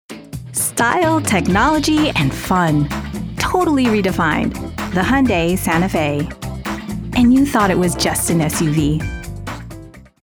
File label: english american automotive; Filipina, Asian-American professional voice